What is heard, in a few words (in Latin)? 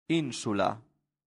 "insula", latin; santillana